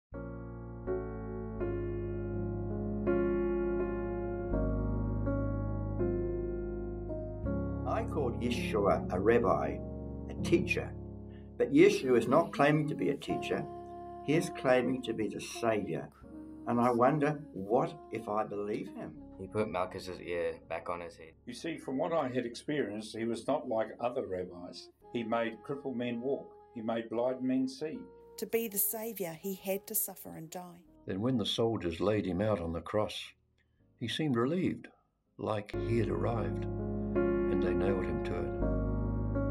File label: advert for service